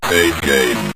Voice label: save Game, Synth Voice; Kenney's Sound Pack